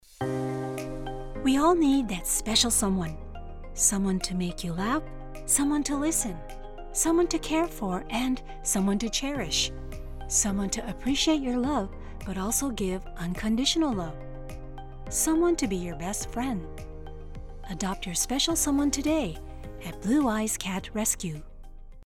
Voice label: foreigner